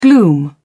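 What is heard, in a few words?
"gloom", English, British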